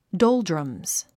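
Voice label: "doldrums", English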